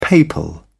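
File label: "papal", British English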